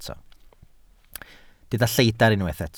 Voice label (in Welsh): "lleidr" Welsh